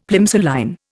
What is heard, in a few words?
"Plimsoll line", Indian; Dictionary